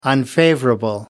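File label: "unfavorable", English, uk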